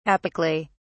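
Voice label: "apically", British English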